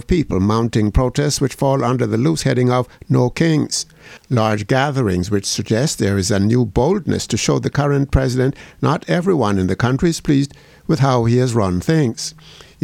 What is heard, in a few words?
COMMENTARY